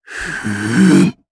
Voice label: Casting, jp; Shakmeh; kingsraid; voices heroes

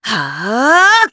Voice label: Vox Casting jp; Hilda; kingsraid

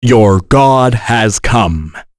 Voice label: vox, en; Kain; kingsraid; voices heroes en

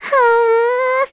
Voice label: Lavril Vox Casting, voices heroes en; kingsraid-audio

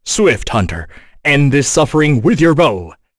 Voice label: Vox Skill, en; kingsraid; voices heroes

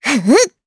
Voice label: Vox Casting jp; Estelle; kingsraid

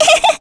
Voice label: Vox Happy kr; Lavril; kingsraid

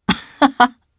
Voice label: Vox Happy jp; voices; heroes; Fluss; kings raid

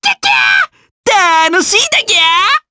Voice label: Vox Skill jp; Lakrak; kings raid

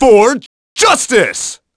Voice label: Vox Skill, en; kingsraid; voices heroes

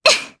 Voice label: Landing, jp; Epis; kingsraid

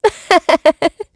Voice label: Happy, kr, voices; heroes; Ripine; kings raid

